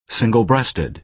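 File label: "single-breasted", American English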